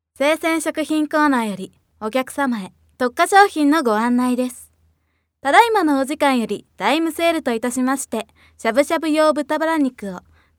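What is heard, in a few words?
narration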